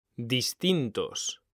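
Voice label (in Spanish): "distintos", Spanish; mekanta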